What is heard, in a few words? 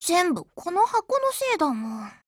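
BREAK, Japanese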